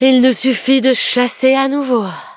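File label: defeat, French; cookie run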